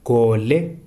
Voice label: "cloud", Kalanga